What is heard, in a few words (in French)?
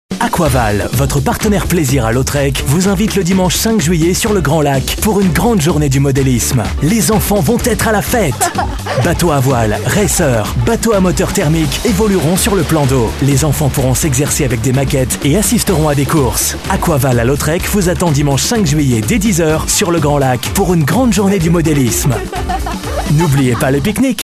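spot, spots radio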